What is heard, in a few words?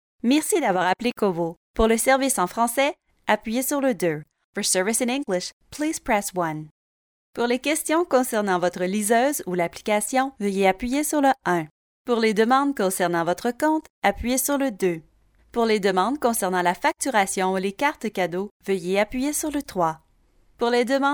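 French Canada, female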